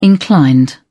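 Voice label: "inclined", British English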